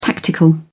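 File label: "tactical", British English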